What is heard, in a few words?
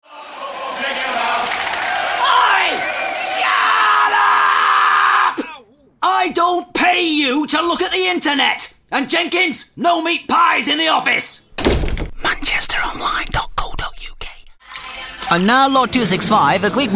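Character, voices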